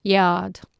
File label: "geard", Anglo-Saxon